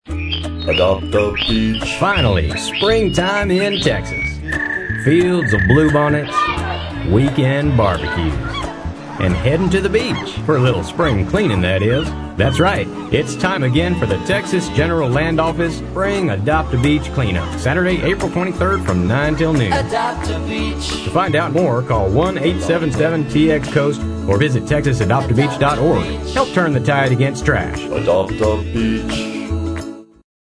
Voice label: Radio English